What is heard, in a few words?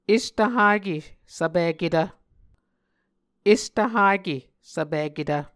"estvhake svpiketv", Muscogee